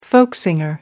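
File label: "folk singer", British English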